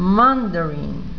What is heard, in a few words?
"mandarin", Elementary Multilanguage Dictionary